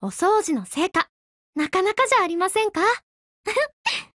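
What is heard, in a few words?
WIN, Japanese, Voice; GF Alarm; M1897Mod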